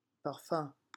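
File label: "parfum", French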